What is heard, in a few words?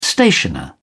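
"stationer", British English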